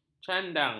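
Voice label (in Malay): "candang", Malay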